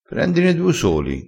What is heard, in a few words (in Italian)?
"du", crespinese